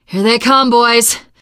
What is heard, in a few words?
incoming, teengirl survivor voice